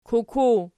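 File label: "kuku", nominative, Archi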